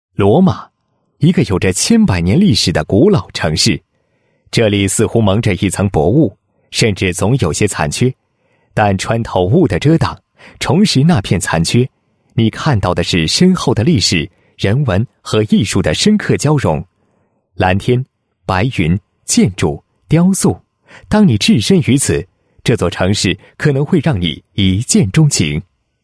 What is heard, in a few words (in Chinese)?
男 专题 宣传介绍; 罗马国际电影节